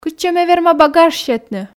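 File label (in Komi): кытчӧ ме верма багаж сетны, Komi, female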